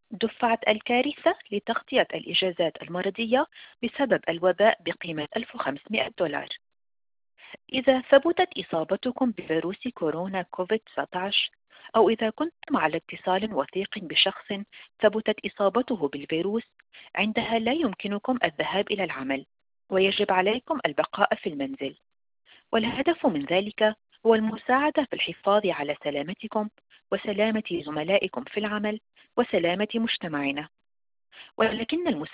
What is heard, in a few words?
arabic; 1500 pandemic leave disaster payment